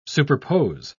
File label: "superpose", en uk